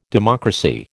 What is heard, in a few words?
"democracy", American